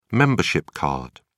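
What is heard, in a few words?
"membership card", British English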